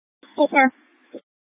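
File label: Incident Tac 1, Scanner audio recording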